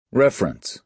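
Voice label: "reference", American English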